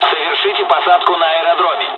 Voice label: fly, dialogs russian; War Thunder

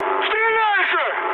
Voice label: attack position, mood high, speech ru

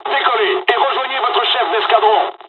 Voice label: takeoff, dialogs french; War Thunder

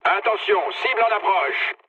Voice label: near waypoint mood low, speech fr; War Thunder

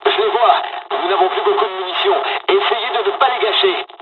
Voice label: dialog, dialogs french; War Thunder

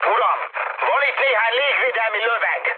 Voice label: damaged aa, mood high, speech hun; War Thunder

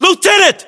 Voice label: lieutenant, battlechatter, english, us

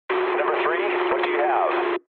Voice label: eng chat, speech eng

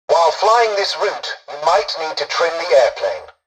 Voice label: tutorial dialogue, english; War Thunder

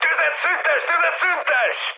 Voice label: player friendly fire, mood high, speech hun

